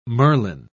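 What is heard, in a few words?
"merlin", British English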